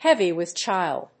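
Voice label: "heavy with child", English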